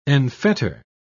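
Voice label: "enfetter", British English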